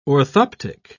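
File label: "orthoptic", British English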